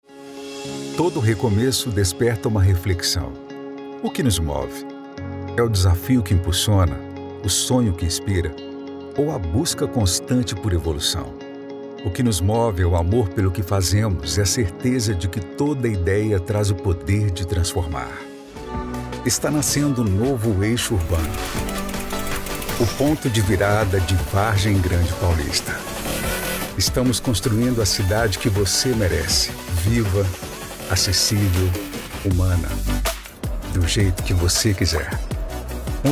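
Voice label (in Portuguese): Institucional Voz Grave Maduro, Locução Publicitária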